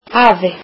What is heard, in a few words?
icelandic grandfather